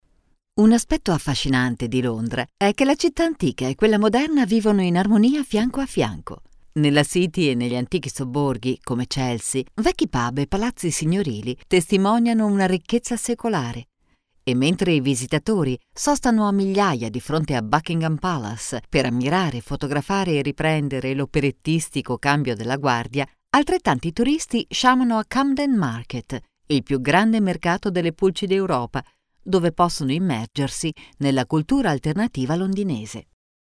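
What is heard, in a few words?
Italian, female, voice over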